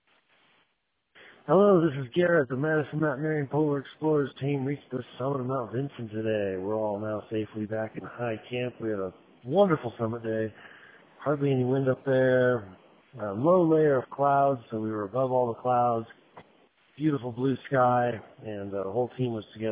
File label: voicemail